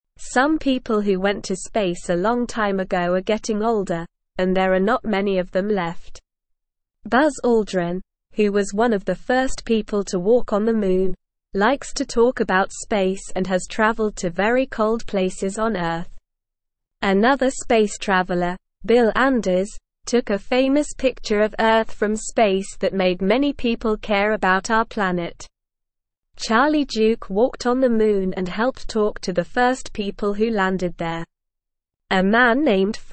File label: English SLOW Reading; Space Travelers Our Heroes Who Explored the Moon; English Newsroom